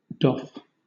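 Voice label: "doff", British English; pronunciations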